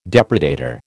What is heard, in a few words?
"depredator", American